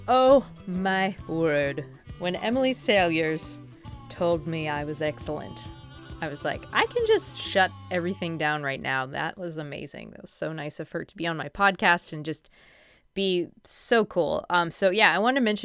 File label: announcer